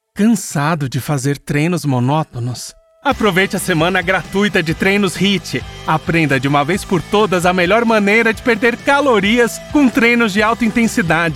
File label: portuguese brazilian podcasts